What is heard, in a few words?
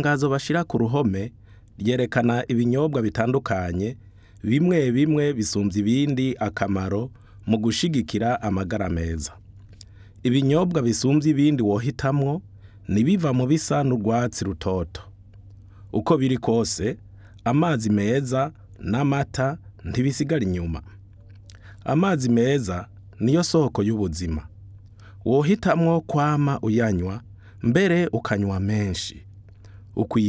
kirundi